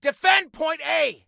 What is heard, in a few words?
DEFEND, Male; T2 Male 03